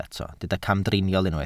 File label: "camdriniol", Welsh